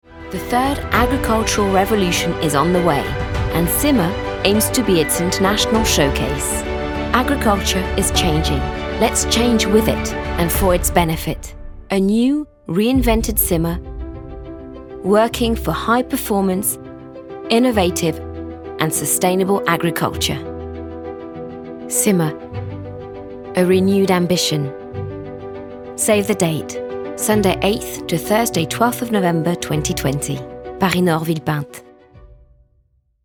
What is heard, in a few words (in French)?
Anglais; SIMA